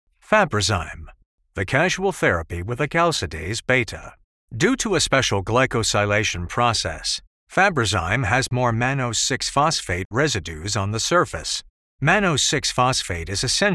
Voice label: english american medical narration

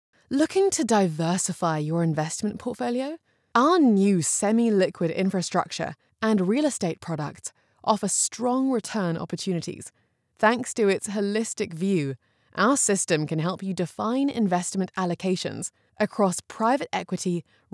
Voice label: english british real estate; English (International) English (British) English (New Zealand)

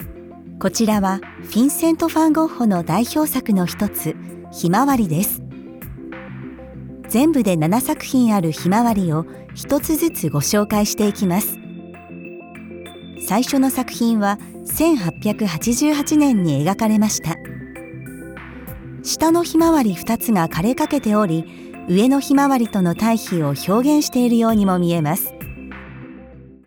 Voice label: japanese audio guides, female Japanese; voice actor; professionally trained female Japanese

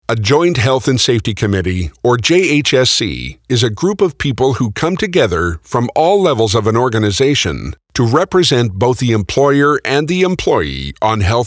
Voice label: english american e-learning

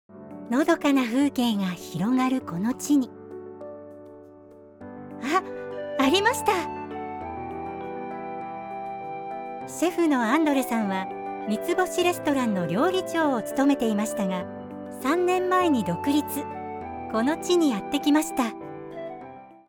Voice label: japanese documentaries

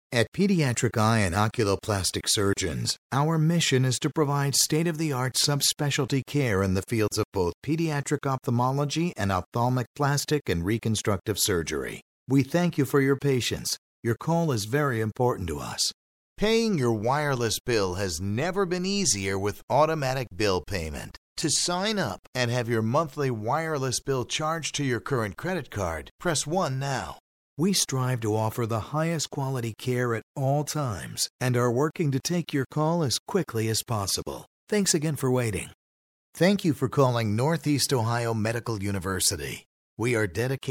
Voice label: english american ivr

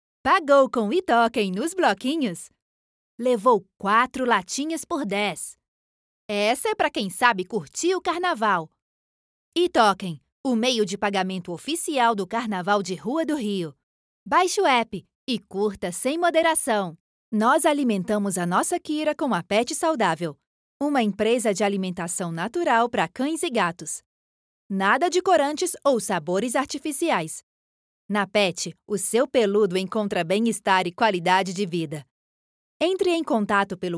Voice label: portuguese brazilian promos

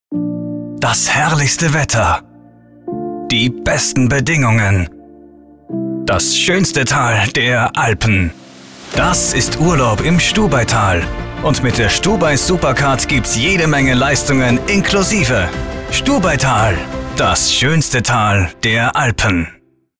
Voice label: german austrian commercial

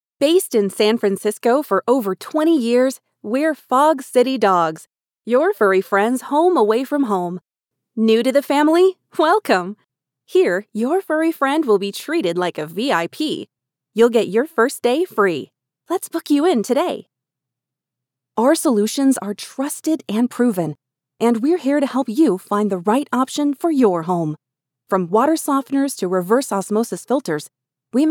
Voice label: english american ivr

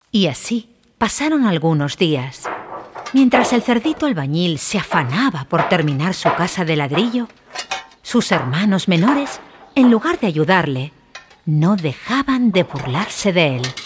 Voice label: spanish animation

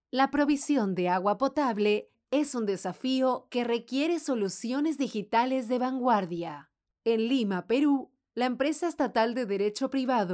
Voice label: spanish latin american corporate videos

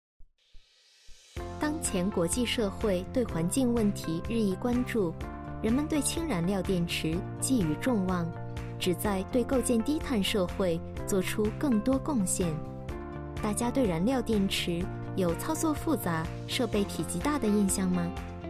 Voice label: chinese mandarin promos

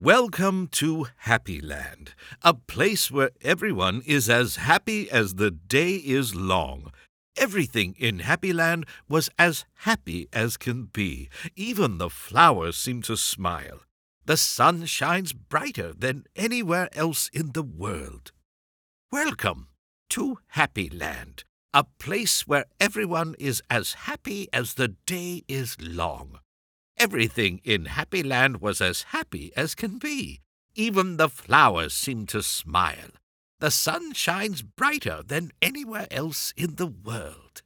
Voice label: english canadian promos

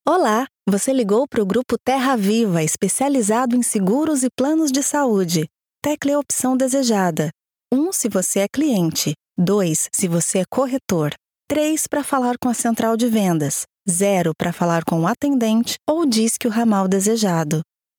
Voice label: portuguese brazilian ivr

